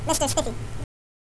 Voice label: chipmunk